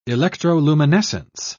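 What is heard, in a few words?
"electroluminescence", British English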